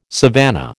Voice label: "savannah", American